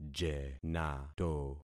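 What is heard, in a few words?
"jenato", concatenated syllables; Affixing experiment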